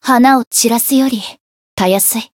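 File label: Voice win, Japanese; sophia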